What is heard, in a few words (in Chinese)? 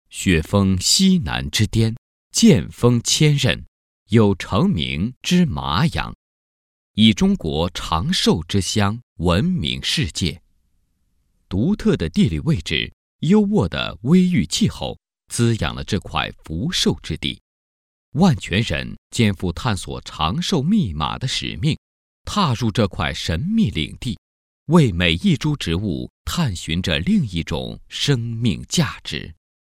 国语男声配音, 专题; 万源; 全能录音